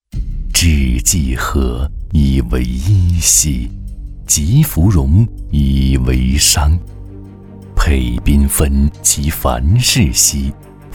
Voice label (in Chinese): 男 广告 品牌广告 品质感; 英妮